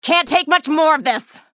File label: chatter negative; athena; respawn